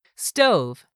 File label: "stove", English; 3000 words